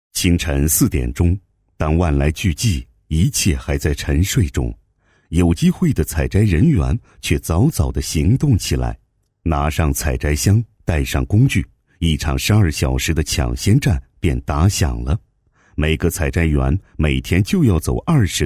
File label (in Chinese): 男 宣传片; 有机汇